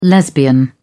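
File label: "lesbian", British English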